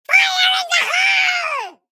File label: fire in the hole high pitched